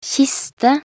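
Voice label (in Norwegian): "kiste", Norwegian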